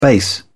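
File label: "base", British English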